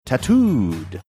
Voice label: saying tattooed funny manner